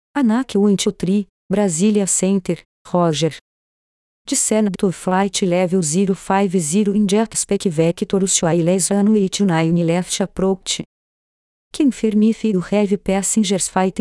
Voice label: Air traffic Controller Situation; simulador test